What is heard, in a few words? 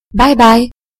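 "bye bye", British English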